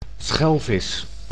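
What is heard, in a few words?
"schelvis", Dutch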